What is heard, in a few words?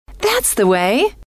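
that's the way, female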